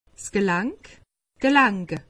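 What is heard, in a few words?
"gelank", Alsatian; medecine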